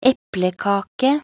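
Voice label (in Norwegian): "eplekake", Norwegian; talkbook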